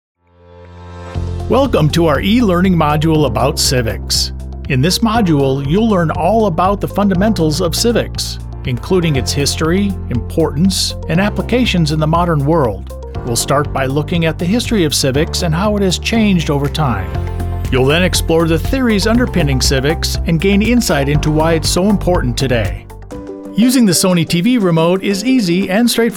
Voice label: narration